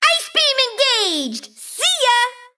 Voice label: Female Mechagnome Emote Knockout